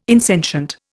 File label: "insentient", Indian